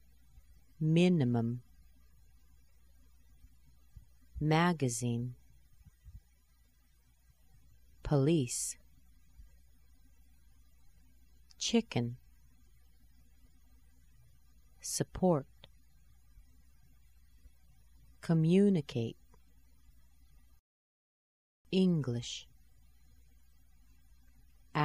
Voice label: schwa words slow, American accent

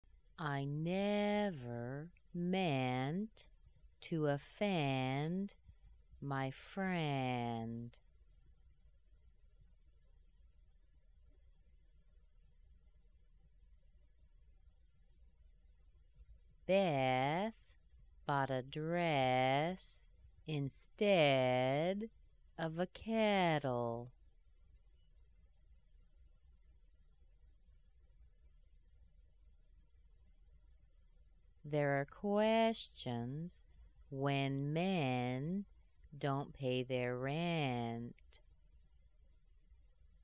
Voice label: eh sentences slow, American accent

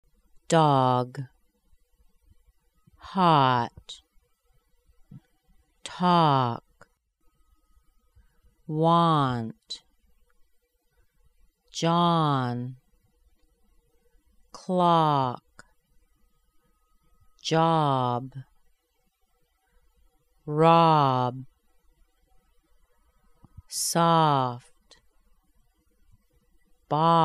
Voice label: AH Words Slow, American accent

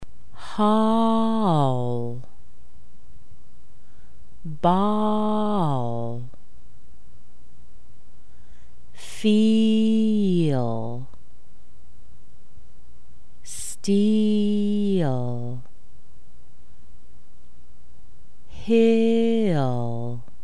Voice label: L words slow, American accent

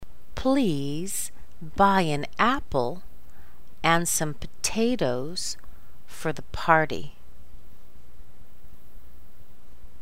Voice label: P B sentences slow, American accent

